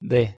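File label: "d", Spanish pronunciation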